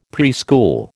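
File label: "preschool", American English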